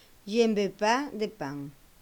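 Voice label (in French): "pas de pain", French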